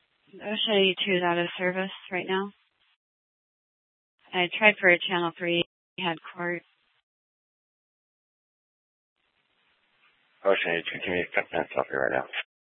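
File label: Police Department Dispatch, scanner; Mesa PD; 4 Superstition Dispatch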